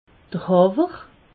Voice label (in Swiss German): "hawwer", alsace